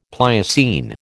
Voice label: "Pliocene", American

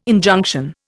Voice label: "Injunction", Indian

